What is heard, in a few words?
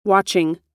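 "watching", American English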